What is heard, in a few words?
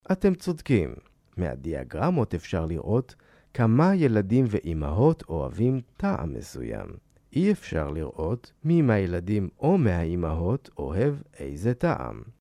hebrew e learning